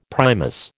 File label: "Primus", American